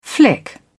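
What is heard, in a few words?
"flick", British English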